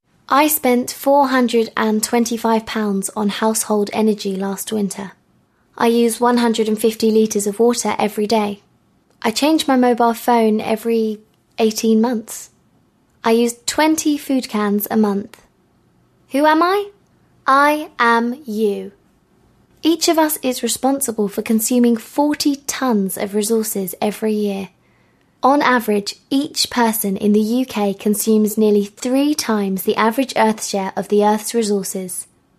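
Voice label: narration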